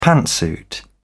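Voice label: "pantsuit", British English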